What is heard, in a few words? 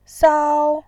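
"sao", Mandarin Chinese, first tone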